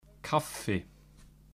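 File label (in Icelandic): "kaffi", Icelandic; matarhefti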